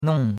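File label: "nong4" Mandarin Chinese syllable, fourth tone; Cours de Chinois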